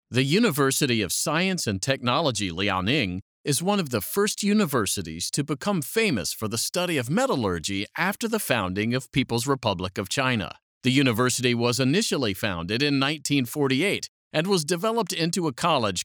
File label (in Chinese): 外籍男英 外语 美式英语; 辽宁大学